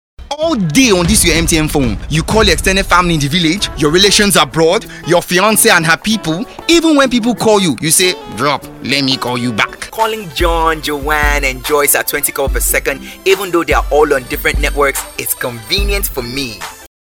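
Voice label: African English, General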